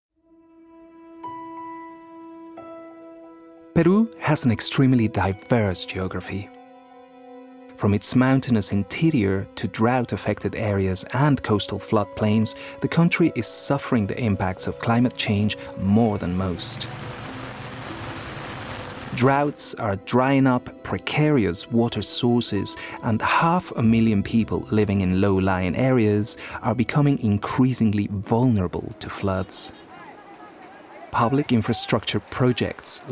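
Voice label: Latin American Spanish, accented English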